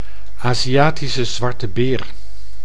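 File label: "Aziatische zwarte beer", Dutch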